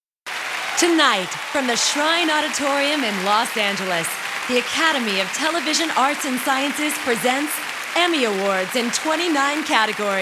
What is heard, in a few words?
Show and Stadium Announce; show and stadium announcers